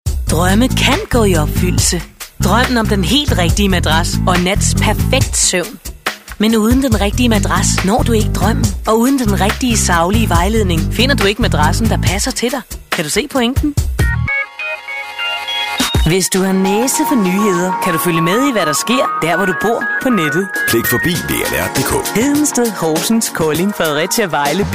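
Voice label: danish female commercial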